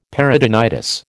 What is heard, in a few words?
"peritonitis", American